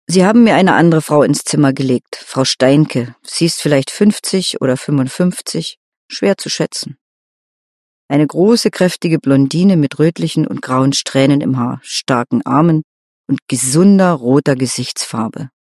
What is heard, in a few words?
german female